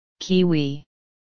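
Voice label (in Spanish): "kiwi", Spanish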